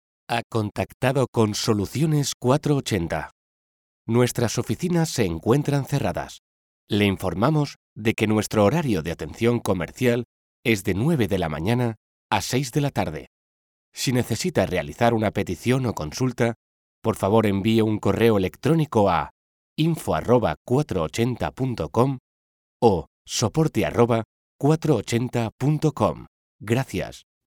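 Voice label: spanish interactive voice response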